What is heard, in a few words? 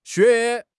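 "xue3", Chinese; HSK2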